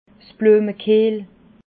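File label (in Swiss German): "bluemkehl", Alsatian, Bas-Rhin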